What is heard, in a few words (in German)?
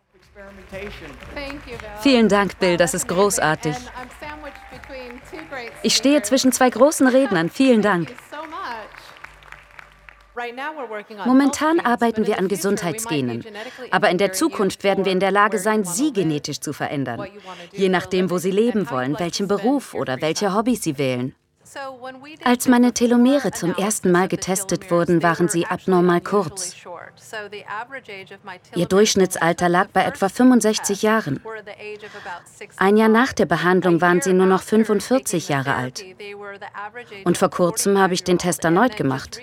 Voiceover; LOFT VOICES